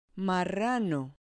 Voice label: "marrano", Spanish